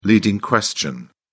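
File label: "leading question", English, British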